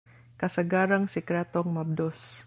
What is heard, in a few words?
Cebuano